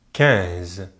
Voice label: "quinze", French

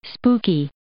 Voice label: "spooky", English

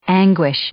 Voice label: "anguish", English; noun

